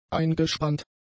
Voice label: "eingespannt", German; adjectives